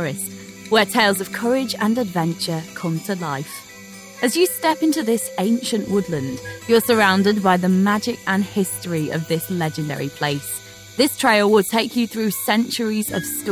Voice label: english british audio guides

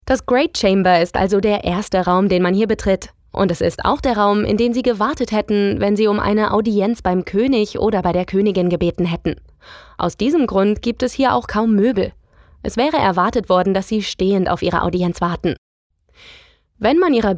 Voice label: German narration

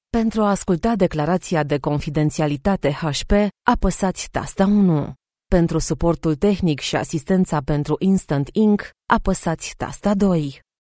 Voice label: romanian ivr

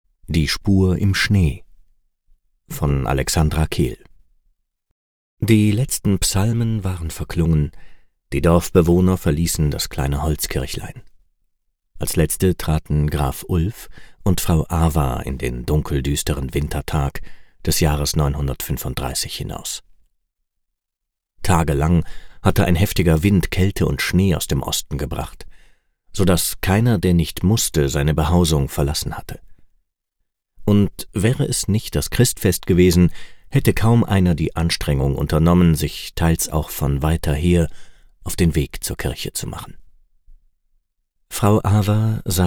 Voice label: german audiobooks

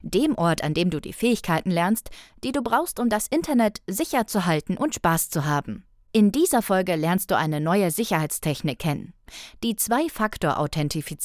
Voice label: german narration

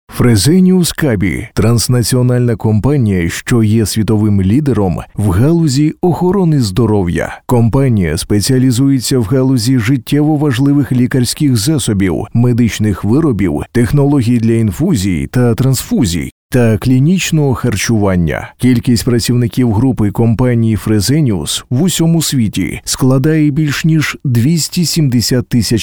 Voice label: ukrainian e-learning

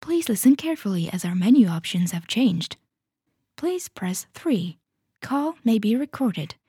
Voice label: english ivr

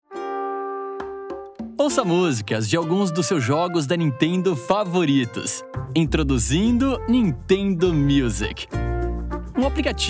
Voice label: portuguese brazilian others

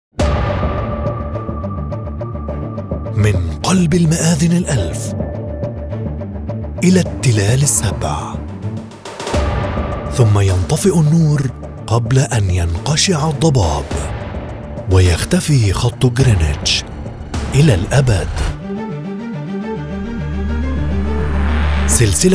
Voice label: arabic movie trailers

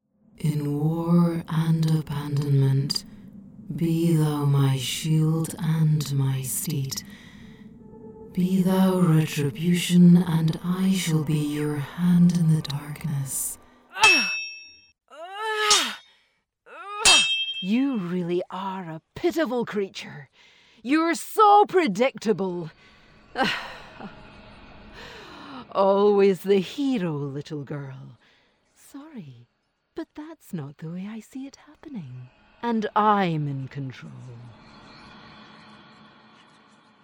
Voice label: english scottish video games

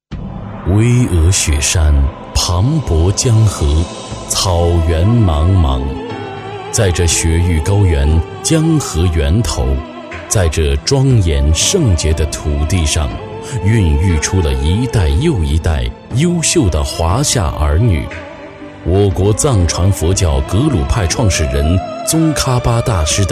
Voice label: chinese mandarin documentaries

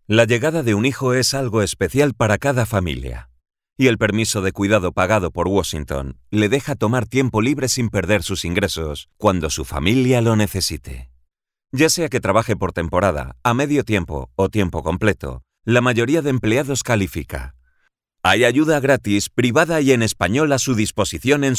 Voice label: Spanish narration

